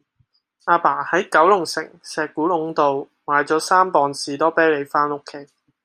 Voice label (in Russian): Голоса, Гонконгский; commonvoice